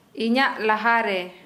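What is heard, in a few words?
"in front of", Santali, female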